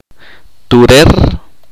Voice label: "vivir", chorti